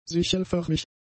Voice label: "sichelförmig", German; adjectives

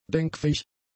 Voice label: "denkfähig", german; adjectives

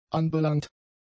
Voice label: "anbelangt", German; adjectives

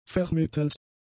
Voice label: "vermittels", German; prepositions

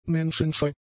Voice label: "menschenscheu", German; adjectives

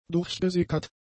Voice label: "durchgesickert", German; adjectives

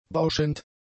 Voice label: "bauschend", German; adjectives

